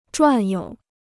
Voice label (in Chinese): "转悠", Chinese